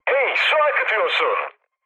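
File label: damaged water, mood med, Turkish; speech; War Thunder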